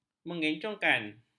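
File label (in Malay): "mengencongkan", Malay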